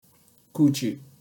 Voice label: "kuchi" Japanese